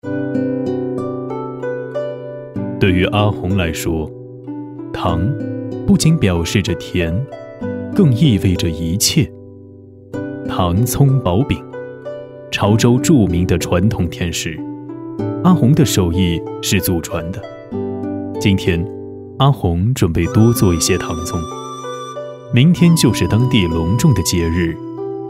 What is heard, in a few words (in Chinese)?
男 纪录片 舌尖体; 对于阿鸿来说